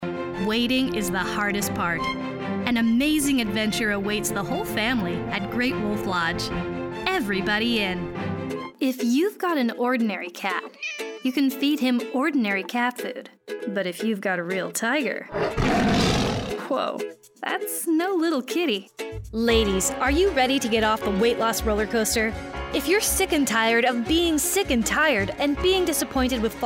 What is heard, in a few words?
Commercial